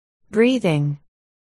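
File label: "breathing", spoken word, articulation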